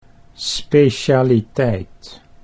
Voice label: "specialiteit", Dutch

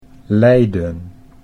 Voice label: "Leiden", Dutch

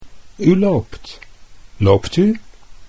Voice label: "u loopt", Dutch

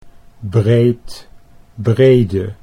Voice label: "breed brede", Dutch

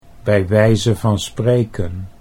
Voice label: bij wijze van spreken, Dutch

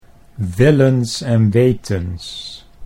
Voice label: "willens en wetens", Dutch

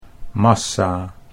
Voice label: "massa", Dutch

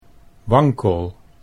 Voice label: "wankel", Dutch

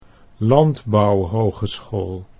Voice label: "landbouwhogeschool", Dutch

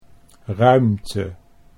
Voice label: "ruimte", Dutch

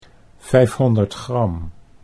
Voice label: "500 gram", Dutch

